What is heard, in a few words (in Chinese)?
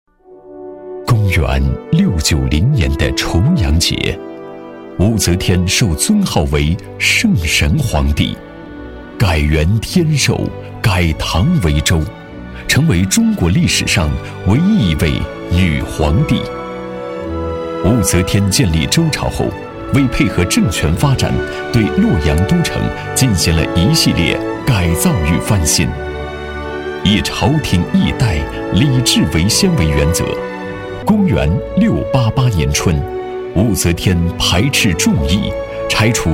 纪录片, 人文历史; 武则天纪录片